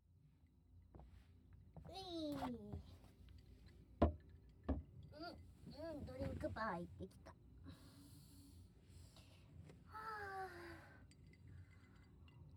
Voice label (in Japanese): カラオケギャルのJKトーク, ASMR